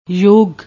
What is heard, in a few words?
"yog", Punjabi